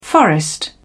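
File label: "forest", British English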